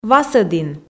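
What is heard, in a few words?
"васӧдін", Komi, female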